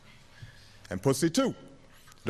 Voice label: old speech; obama

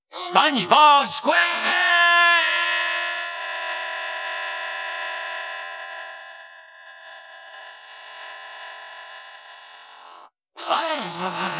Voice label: french narrator

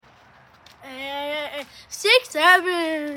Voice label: kid saying 67

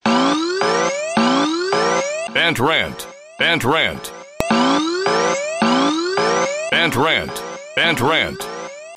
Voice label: rant

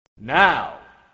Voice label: "now" announcer

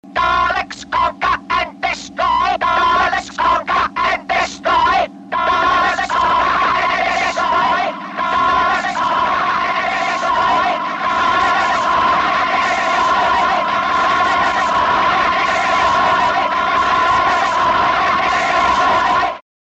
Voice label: dalek chorus

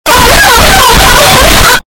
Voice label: cute voice

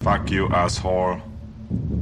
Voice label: terminator voice

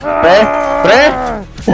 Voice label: rage

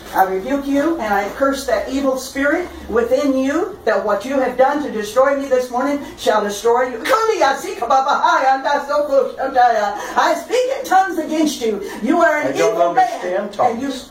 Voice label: church rebuke

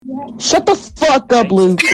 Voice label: angry

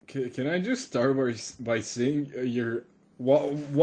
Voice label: stuttering

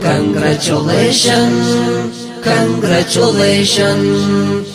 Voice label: congratulations congratulations, arab; nokia ringtone

